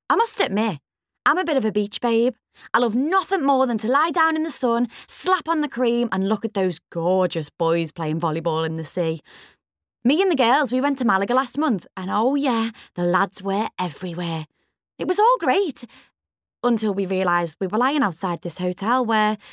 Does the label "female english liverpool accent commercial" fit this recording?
Yes